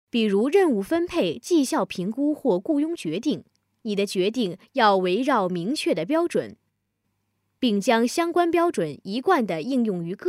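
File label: Mandarin Chinese, female, voice over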